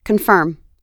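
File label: "confirm", US English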